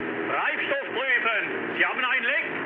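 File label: German tank damaged mood low, speech; War Thunder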